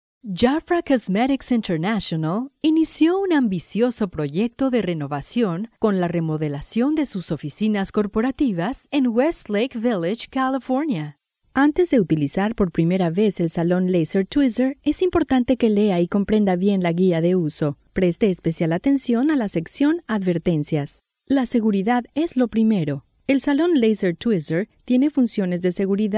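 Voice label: Spanish Latin America female voice over, upbeat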